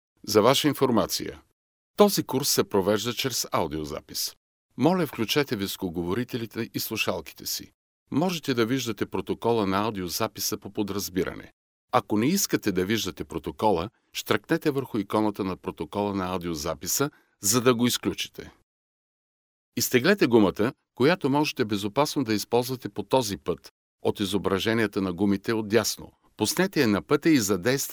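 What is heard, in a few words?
Bulgarian, male, voice over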